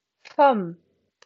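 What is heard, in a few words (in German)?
"vom", German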